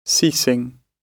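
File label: "ceasing", British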